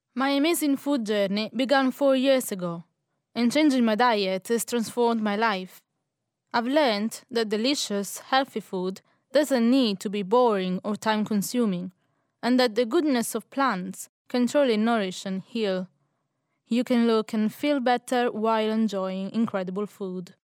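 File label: Italian female voice over, English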